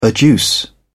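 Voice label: "adduce", British English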